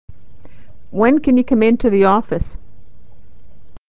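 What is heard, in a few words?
can, American English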